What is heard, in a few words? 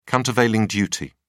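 "countervailing duty", British English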